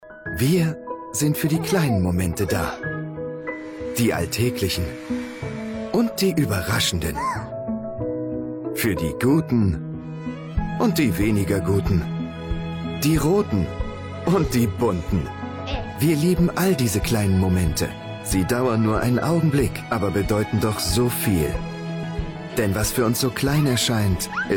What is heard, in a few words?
Commercial TV; Ferrero; kinder